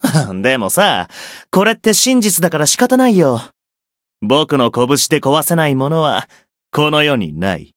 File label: story, jp; nero